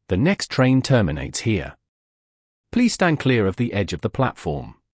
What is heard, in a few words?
announcement terminating english; operator